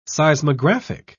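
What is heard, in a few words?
"seismographic", British English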